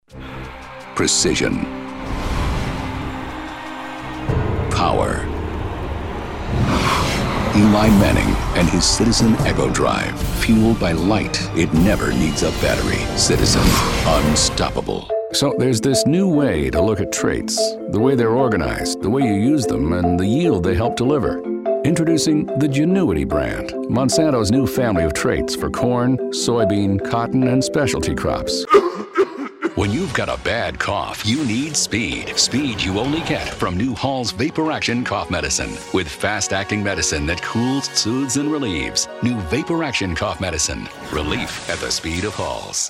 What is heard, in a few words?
English, english males